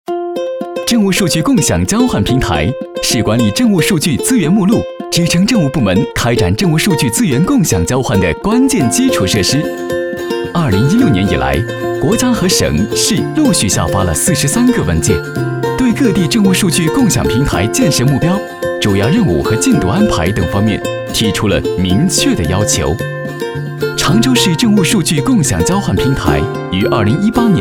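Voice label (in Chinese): 男国大音-政务MG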